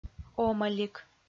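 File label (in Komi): "омӧлик", Komi, female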